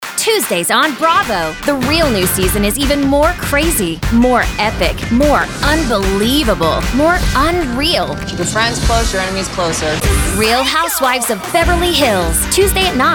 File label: Female Voiceover, network promo